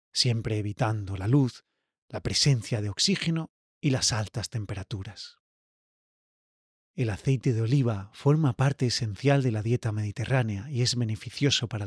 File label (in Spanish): cuadro explicativo de tinajas Español; audio guia en castellano de almazara molino nuevo